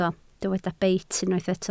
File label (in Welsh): "beit" Welsh